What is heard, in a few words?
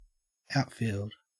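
"outfield", Australian English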